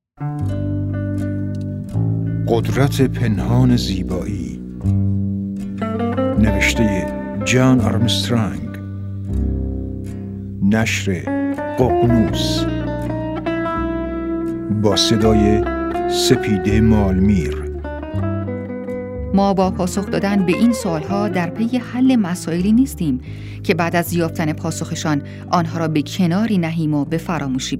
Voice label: announce